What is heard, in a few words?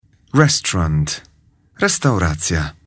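"restaurant", English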